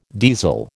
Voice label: "diesel", American